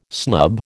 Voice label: "snub", American